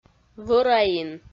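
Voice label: "вӧраин", Komi, female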